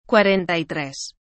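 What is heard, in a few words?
"cuarenta y tres", Spanish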